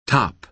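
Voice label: "top", American English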